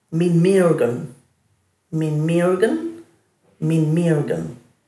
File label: "minmirgun", Dhurga